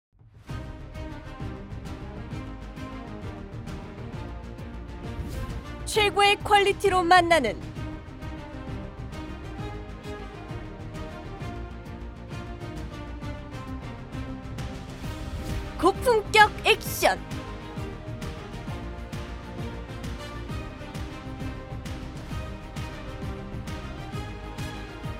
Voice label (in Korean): 힘있는; 검은사막